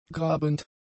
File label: "grabend", German; adjectives